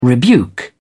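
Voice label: "rebuke", British English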